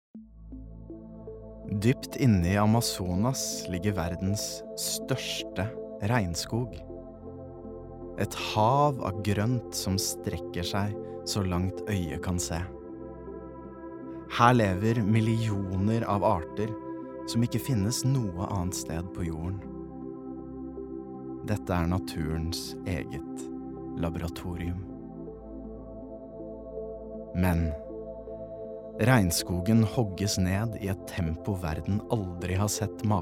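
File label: documentary, norwegian, male; dokumentar; norsk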